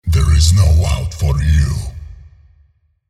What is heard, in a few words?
ability activate en; visor